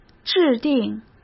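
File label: "制定", Mandarin Chinese; chinese-flashcards; hsk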